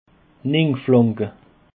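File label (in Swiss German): "rinflanke", Alsatian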